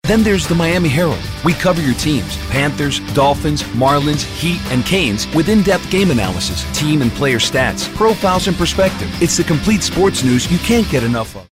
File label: informative